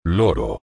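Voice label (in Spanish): "loro", español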